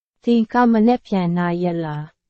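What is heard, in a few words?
Burmese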